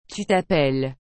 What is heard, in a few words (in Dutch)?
"tu t'appelles", frans; appeler